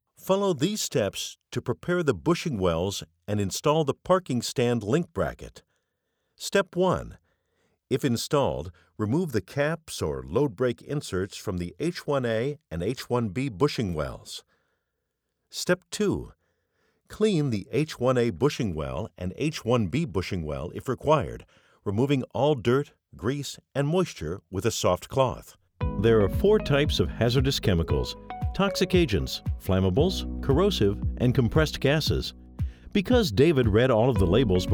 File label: e-Learning